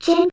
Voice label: Serious Word; Midna